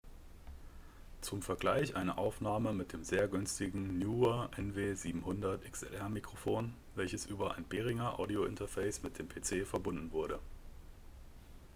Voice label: Neewer NW700 an Behringer

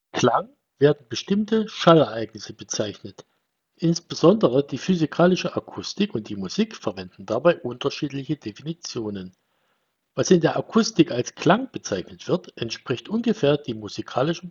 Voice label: Mikrofon

